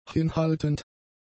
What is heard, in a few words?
"hinhaltend", German; adjectives